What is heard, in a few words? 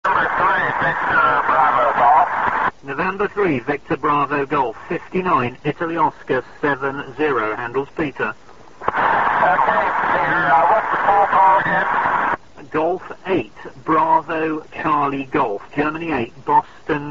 qso